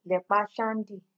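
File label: "lepa shandy", Nigerian Pidgin, female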